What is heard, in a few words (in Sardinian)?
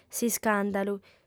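"s'iscàndalu", female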